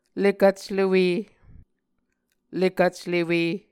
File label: "lekvclewe", Muscogee